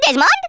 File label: oops, Rasta